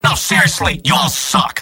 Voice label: scout jeers; mvm

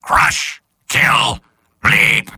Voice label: soldier robot; mvm

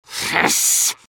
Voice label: medic jeers; vo; tf2

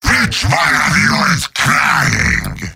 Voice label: heavy jeers; mvm

